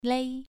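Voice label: "lei", Mandarin Chinese, second tone, pronunciation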